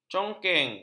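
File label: "congkeng", Malay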